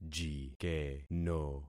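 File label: "jikeno", concatenated syllables; Affixing experiment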